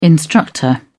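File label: "instructor", British English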